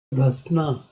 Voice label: "dhasna", Urdu

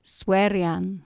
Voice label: "swerian", Anglo Saxon

